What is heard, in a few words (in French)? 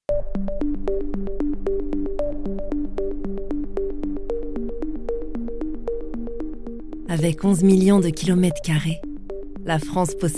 Corporate